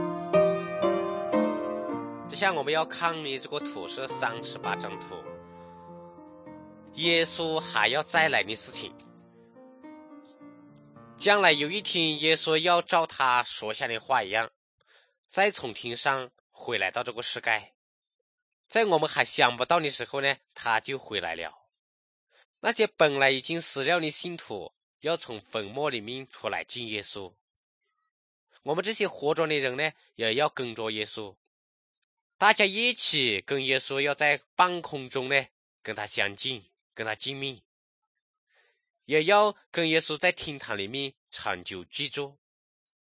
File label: good news shan, drama; shan thailand; kokant shan; bible society nt drama